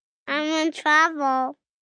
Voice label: Im In Trouble, 1 boy, 4 yrs; Talking Sound Effects